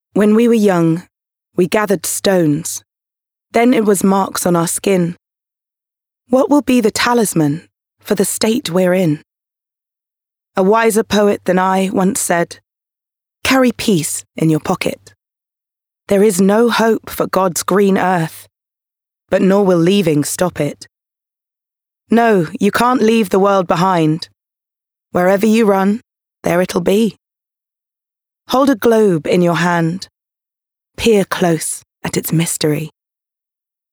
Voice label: Poem